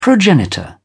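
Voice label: "progenitor", British English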